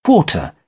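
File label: "quarter", British English; AmericanBritish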